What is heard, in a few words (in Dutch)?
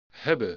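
"hebben", Dutch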